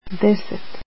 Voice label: ten, croatian